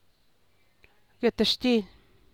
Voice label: "guetxtily", San Lucas Quiaviní Zapotec; guehtx:tiilly